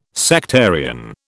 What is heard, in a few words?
"sectarian", British